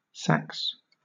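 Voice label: "sax", British English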